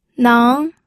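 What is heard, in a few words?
"neng", second tone, pinyin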